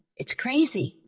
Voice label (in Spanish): Voice Acting es